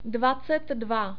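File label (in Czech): "dvacet dva", Czech; numbers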